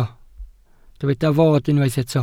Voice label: "fod", Welsh